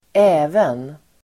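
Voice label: "även", Swedish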